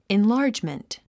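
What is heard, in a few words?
"enlargement", English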